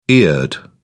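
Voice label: "eared", British English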